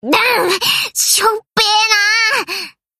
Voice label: Ship Voice Drum Docking Major